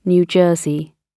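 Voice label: "new jersey", British English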